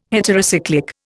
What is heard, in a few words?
"heterocyclic", Indian